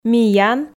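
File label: "миян", Komi, female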